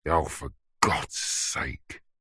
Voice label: human male grumpy angry frustrated says for gods sake; zapsplat